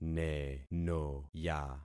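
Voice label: "nenoya", concatenated syllables; Affixing experiment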